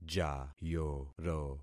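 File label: "jayoro", concatenated syllables; Affixing experiment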